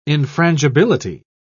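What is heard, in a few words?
"infrangibility", British English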